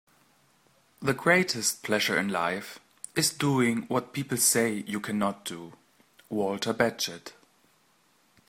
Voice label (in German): en sprechprobe